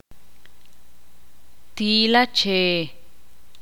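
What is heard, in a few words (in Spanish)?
mulo a, Spanish, Ixil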